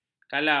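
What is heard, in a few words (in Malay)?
"kalam", Malay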